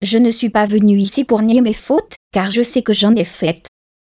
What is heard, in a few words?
synth